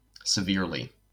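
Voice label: "severely", American English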